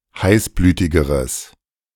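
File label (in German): "heißblütigeres", German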